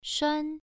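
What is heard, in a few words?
"shuan" Mandarin Chinese, first tone, pronunciation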